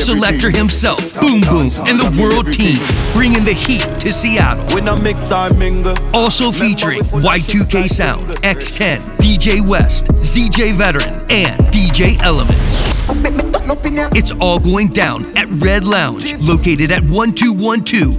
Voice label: Dancehall Reunion Promo Ad; Seattle; 13th Annual